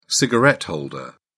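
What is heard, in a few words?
"cigarette holder", British English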